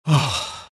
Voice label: happy; skywrath mage

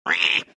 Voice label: anger; tinker

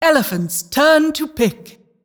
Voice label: announcer team pick elephant

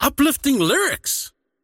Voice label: croak big; dota; largo

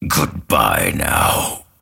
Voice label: lasthit pain; dota; grimstroke

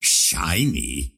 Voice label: lasthit pain; ringmaster

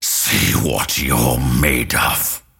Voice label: attack pain; dota; grimstroke

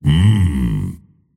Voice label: happy; tiny

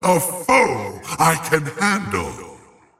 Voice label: lasthit pain; razor

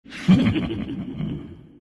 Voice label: laugh; dota; nevermore

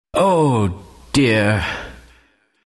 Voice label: announcer death; dlc kleiner

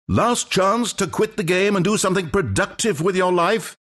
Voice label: announcer count battle; dlc stanleyparable

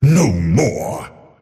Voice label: attack pain; dota; earthshaker; arcana

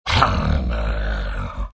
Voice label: anger; dota; beastmaster

